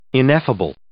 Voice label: "ineffable", English